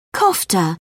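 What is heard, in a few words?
"kofta", English, British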